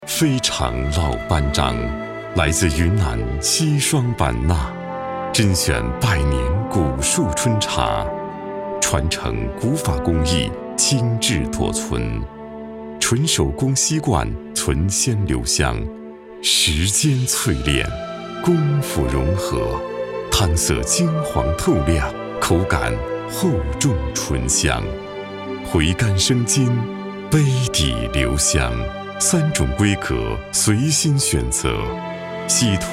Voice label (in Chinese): 成熟男性茶叶广告